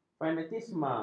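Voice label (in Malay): "fanatisme", Malay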